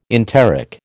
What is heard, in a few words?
"enteric", American